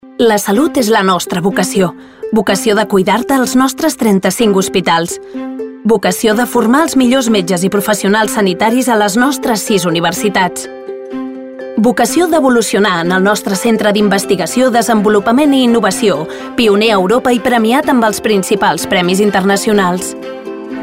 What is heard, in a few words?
female catalan hospital comercial